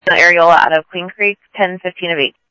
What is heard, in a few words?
Sheriff scanner audio; South Scottsdale; MCSO - EAST; Sheriff-EastCnty